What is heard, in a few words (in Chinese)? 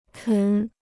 "啃", Chinese